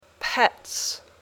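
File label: "pets", English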